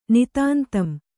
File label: "ನಿತಾಂತಂ", Kannada